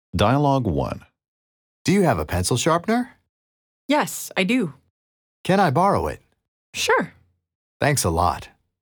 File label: Dialogue natural